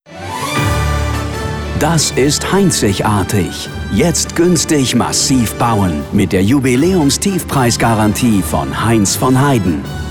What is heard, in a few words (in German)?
Funkspot; Heinz-von-Heiden